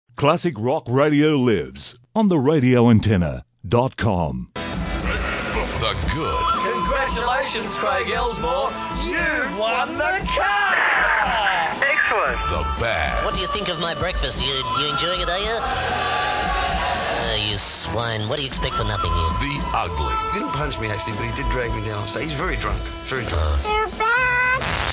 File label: Promos; 105.3 NEW FM; New Zoo; 1990